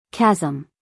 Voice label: "chasm" US English, female; everyone-can-use-english

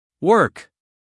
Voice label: "work", us male

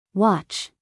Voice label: "watch", us female; 1000 hours

